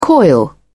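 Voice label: "coil", British English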